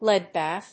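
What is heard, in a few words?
"lead bath", English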